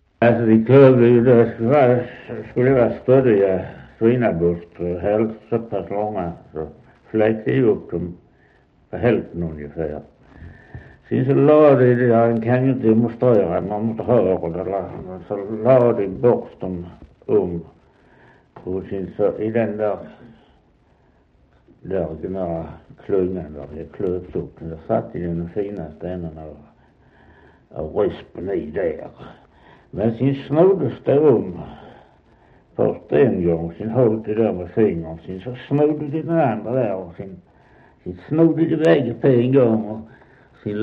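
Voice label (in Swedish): Västra Torup Skåne, Svenska sagor, dialekter; Folkminnen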